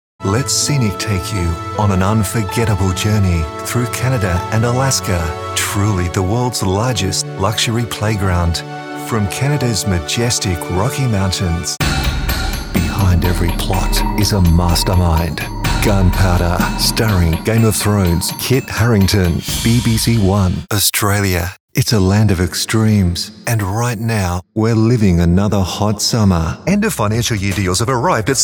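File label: RETAIL COMMERCIAL sound effects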